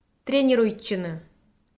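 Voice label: "тренируйтчыны", Komi, female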